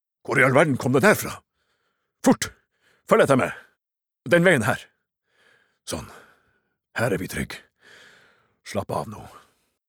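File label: dub, Norwegian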